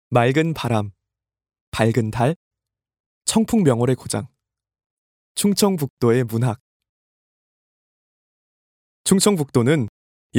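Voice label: Korean, male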